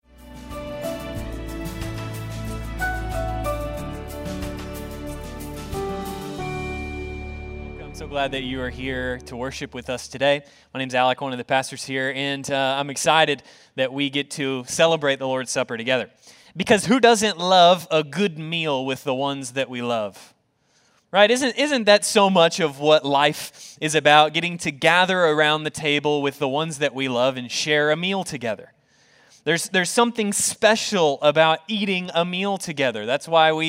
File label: SERMON english